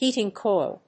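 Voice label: "heating coil", English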